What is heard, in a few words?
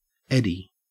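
"eddy", Australian English; pronunciation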